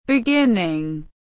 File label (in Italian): Inglese